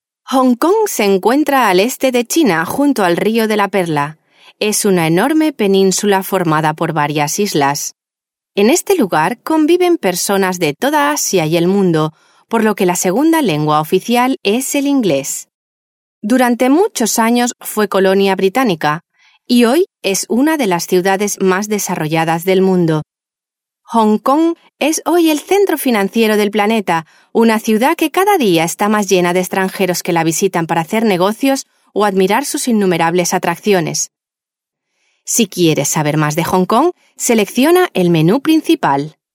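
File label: Spanish female corporate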